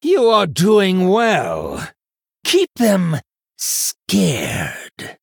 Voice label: Male Human Ahead